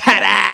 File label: "tadaa", male